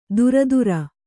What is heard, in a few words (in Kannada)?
"ದುರದುರ", Kannada